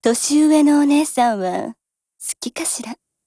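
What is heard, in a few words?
DIALOGUE, Japanese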